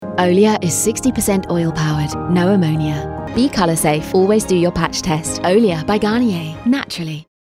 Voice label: Douce Rassurant; Garnier Olia

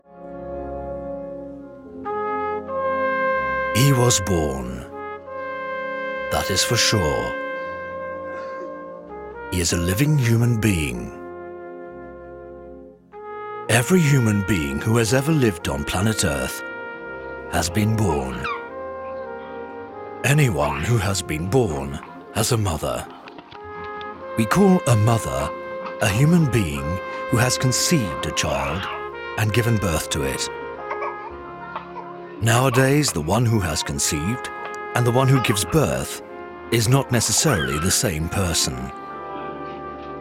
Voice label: Narration; evoquant Film Material Boy 2016

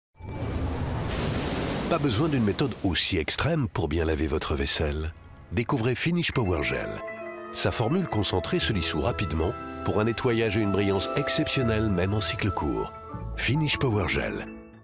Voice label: PUB POWER GEL; FINISH

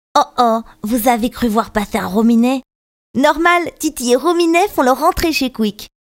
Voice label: PUB RADIO Voix dessin animé; QUICK

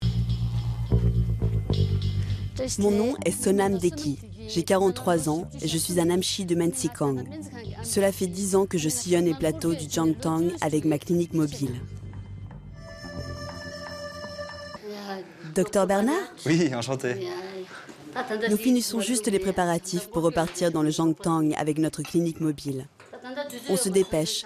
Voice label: VF Documentaire Médecines d'ailleurs Ladakh; Arte; Bonne Pioche

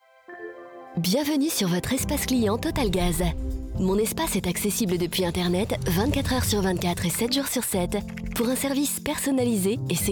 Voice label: didactique douce convaincante

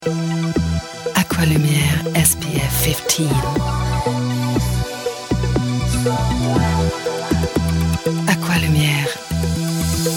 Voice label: anglais

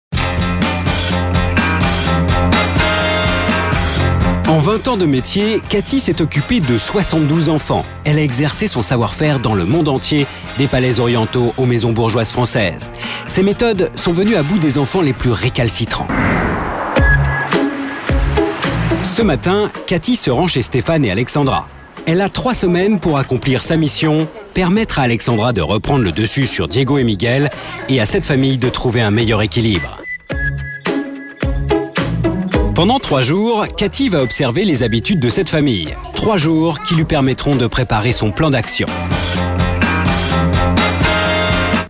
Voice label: Voix off; Super Nanny

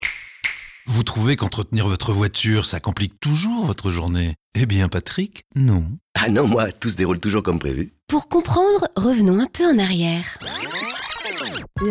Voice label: RADIO; Norauto; Delais Respectes

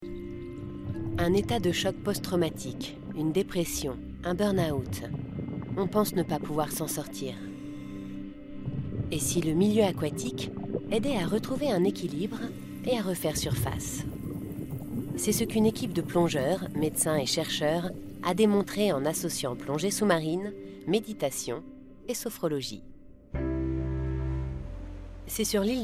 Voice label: DOCU NARRATION plonger pour guerir; voix-off

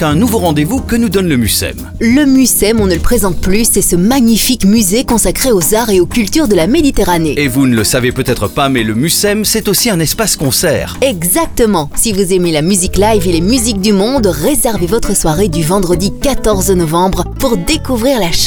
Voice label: Jazz radio; le mucem

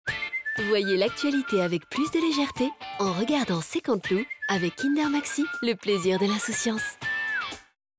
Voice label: billboard souriante; KINDER MAXI

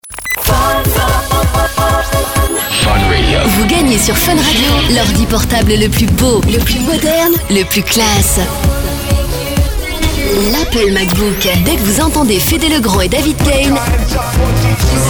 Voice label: HABILLAGE pushy glam; FUN RADIO

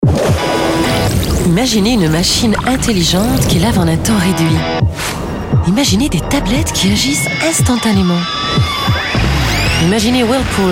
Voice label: voix medium dynamique; WHIRPOOL

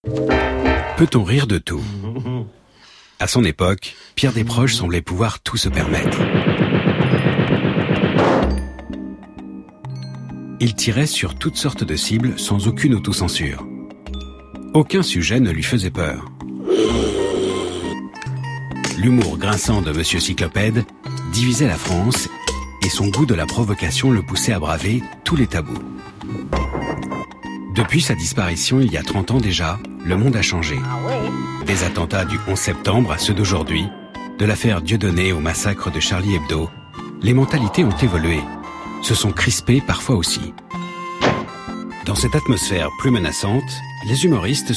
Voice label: Voix Off; Peut on rire de tout; France 3

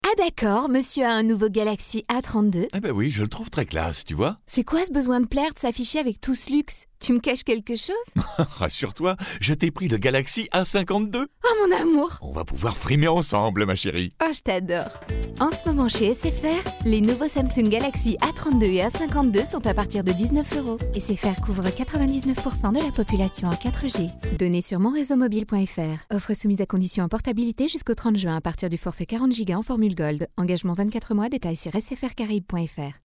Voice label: radio; SFR; Frimer

